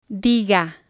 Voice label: "diga", spanish

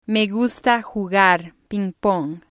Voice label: "me gusta jugar pingpong", spanish

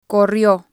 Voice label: "corrio", spanish

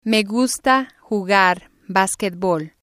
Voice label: "me gusta jugar basquetbol", spanish

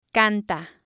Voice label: "canta", spanish

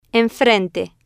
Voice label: "En Frente", spanish

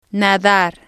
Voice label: "nadar", spanish

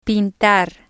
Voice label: "pintar", spanish

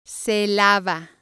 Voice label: "se lava", spanish

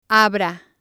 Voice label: "abra", spanish

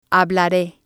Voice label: "hablare", spanish

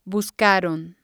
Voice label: "buscaron", spanish

